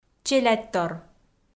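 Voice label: "челядьтор", Komi, female; kpv audio dict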